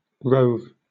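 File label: "rove", British English; pronunciations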